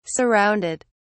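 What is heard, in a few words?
"surrounded", British English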